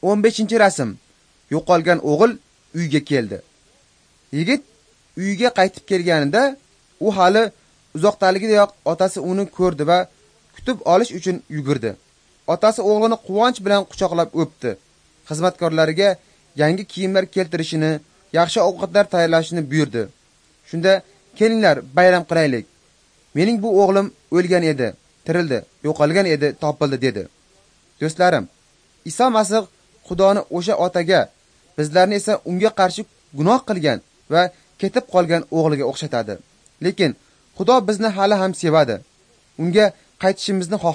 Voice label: uzbek northern

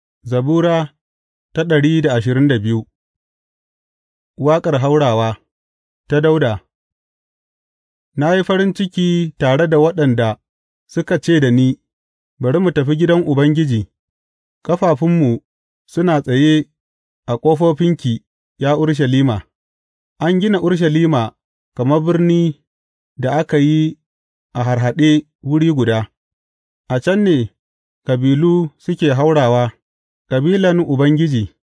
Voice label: hausa bible drama, psalms; hausa bsn nt drama

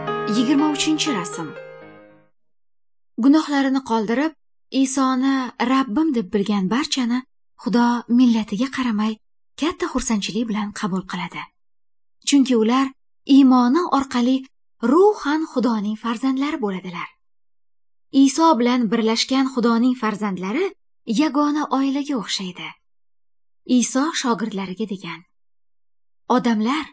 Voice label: good news female uzbek northern

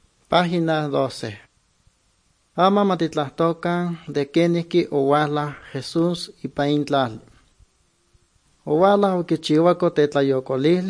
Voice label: good news, nahuatl guerrero chilapa

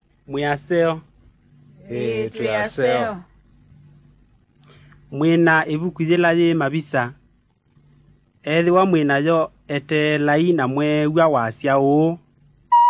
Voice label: kikamba drama; good news; bible

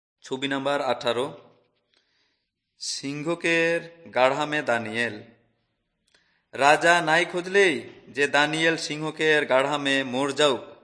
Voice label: urao, drama

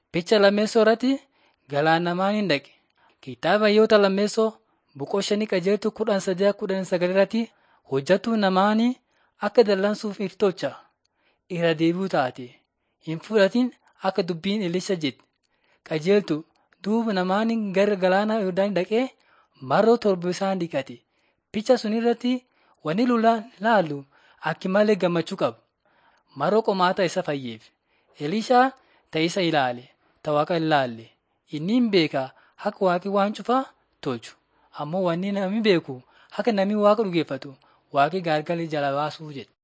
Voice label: borana sakuye; laali chaqasi jiradin 5 jilalacha waaqat; on trial for god

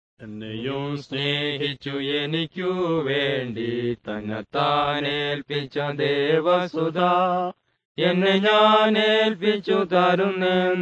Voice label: words of life malayalam; world bible translation center nt drama

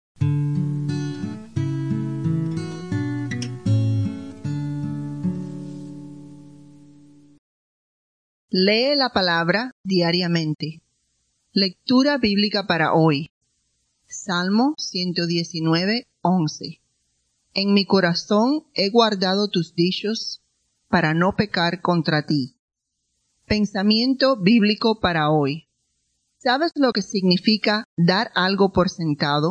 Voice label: devociones devotions spanish latin america

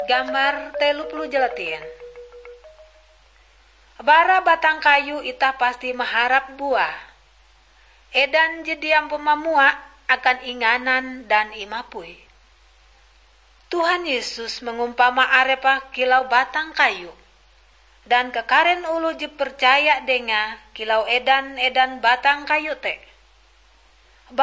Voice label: kabar bahalap good news ngaju, drama; bible

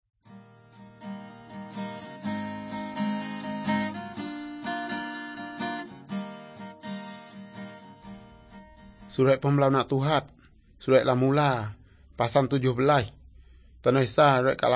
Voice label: good news, urak lawoi; malay

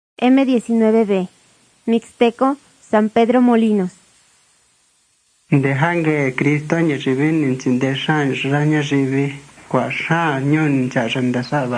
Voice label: mixtec diagnostic spanish mexico

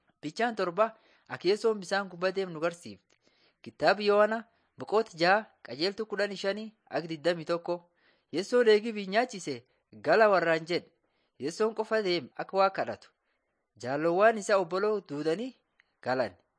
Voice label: borana sakuye; laali chaqasi jiradin 7 jesus lord and saviour

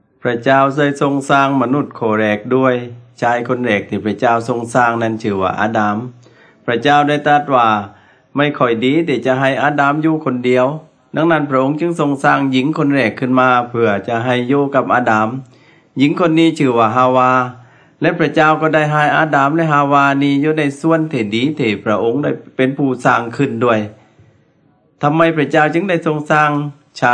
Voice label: words of life creation to christ thai southern

